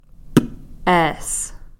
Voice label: "p'ees", Cow Creek; mortar-stone